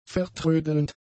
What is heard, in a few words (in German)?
"vertrödelnd", German; adjectives